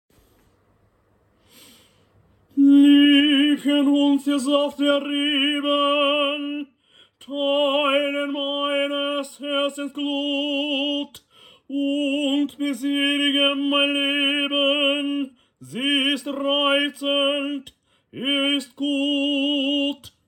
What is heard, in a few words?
rhythm reading